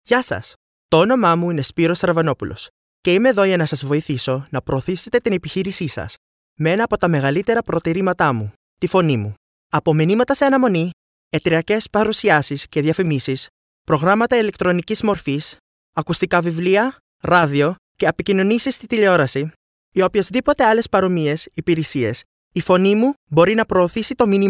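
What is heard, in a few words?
Greek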